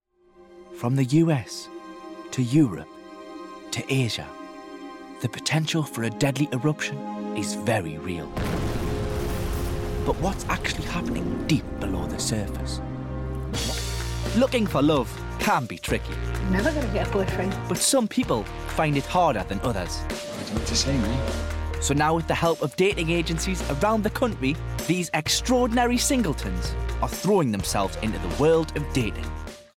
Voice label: Documentary; 20s-30s; Male; Newcastle

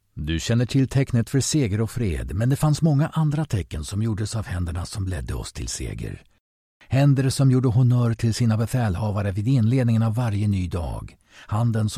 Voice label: Scandinavian, Swedish, Male, Home Studio Read; Studio, 30s-40s. Based in Sweden.

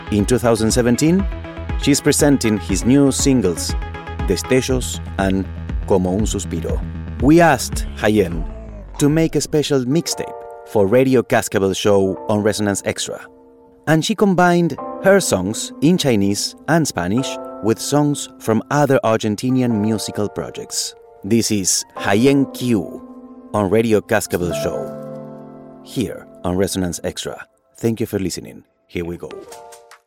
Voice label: English

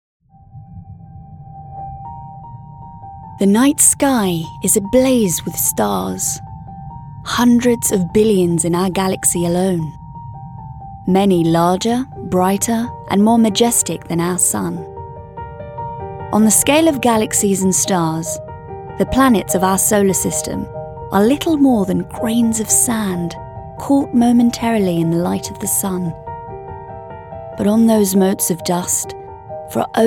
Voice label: DOCUMENTARY

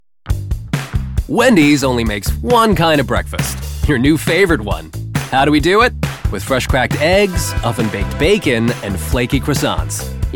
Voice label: commercial

